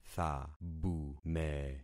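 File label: "thabume", concatenated syllables; Affixing experiment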